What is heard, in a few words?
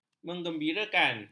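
"menggembirakan", Malay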